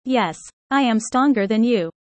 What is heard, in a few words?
user fast; how tall